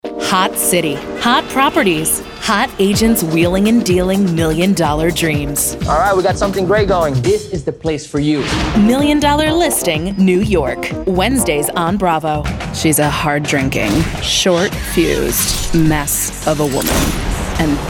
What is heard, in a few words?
Promo, voiceover